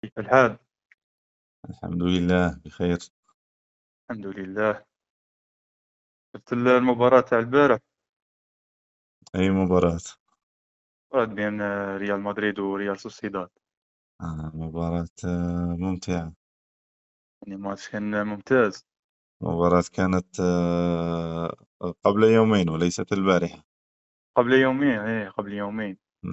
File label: arabic speech